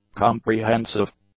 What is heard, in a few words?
"comprehensive", English; adjectives